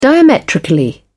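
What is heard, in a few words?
"diametrically", British English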